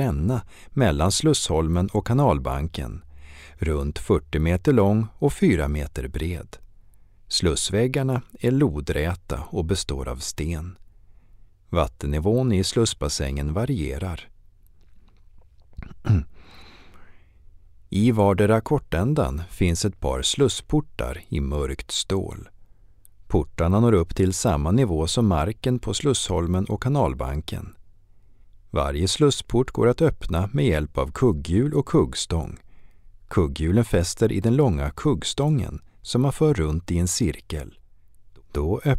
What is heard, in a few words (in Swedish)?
Syntolkning av Slussen; akerskanal ljudguider